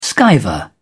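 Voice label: "skiver", British English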